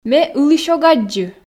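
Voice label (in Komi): ме ылысь ог аддзы, Komi, female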